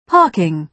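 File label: "parking", British English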